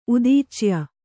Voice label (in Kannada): "ಉದೀಚ್ಯ", Kannada